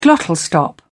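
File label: "glottal stop", English, British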